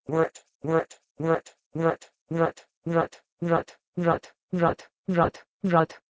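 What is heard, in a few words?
Proto-Indo-European wrh1t to Hindi vrat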